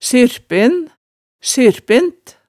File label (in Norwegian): "syrpen", hemsedalsmaalet